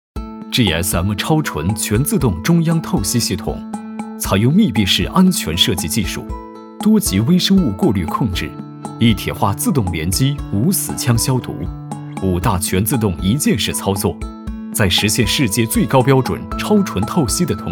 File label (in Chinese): 男 产品介绍 科技感